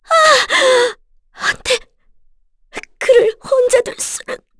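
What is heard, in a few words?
Vox Dead, Korean; FreyB; kingsraid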